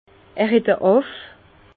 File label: "aff", Bas Rhin; vin